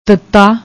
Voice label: "tattaa", Punjabi; ਤੱਤਾ

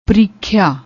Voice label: "prikhya", Punjabi

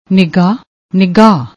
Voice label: "nigaah", Punjabi; ਨਿਗਾਹ

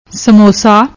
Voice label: "smosa", Punjabi

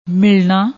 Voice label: "millana", Punjabi

